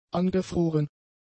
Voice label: "angefroren", German; adjectives